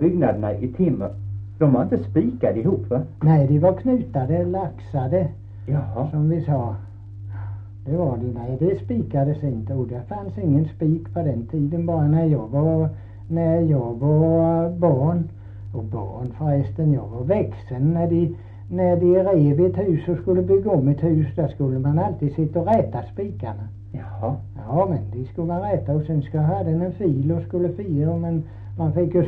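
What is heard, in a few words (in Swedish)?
Kristianopel, Swedish dialect; svenska sagor; Folkminnen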